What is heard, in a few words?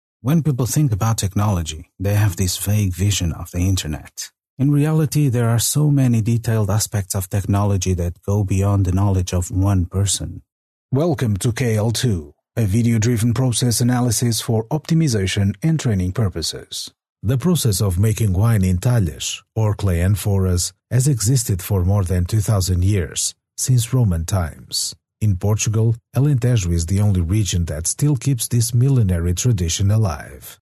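Portuguese, male, English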